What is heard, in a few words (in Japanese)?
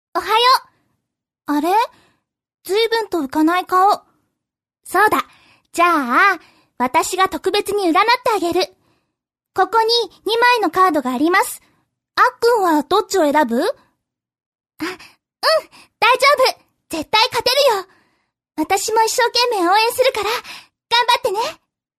f syozyo